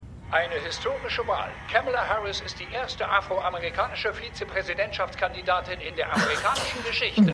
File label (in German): TV Sprecher; The Good Fight